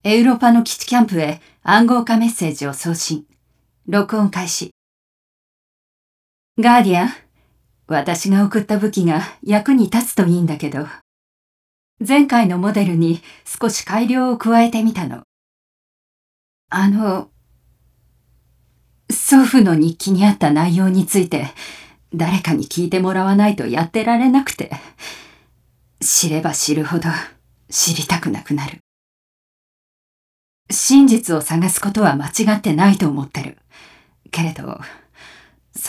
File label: message ja